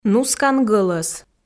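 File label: "нускан гӧлӧс", Komi, female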